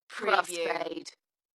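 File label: UK female, Dalekness, voice drop; Crossfade; beanstalk audio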